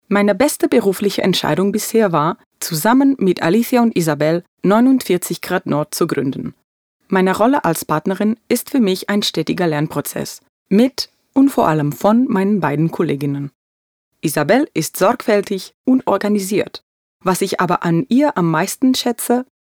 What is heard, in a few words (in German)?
Vorstellung, DE